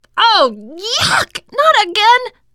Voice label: boomer reaction, teengirl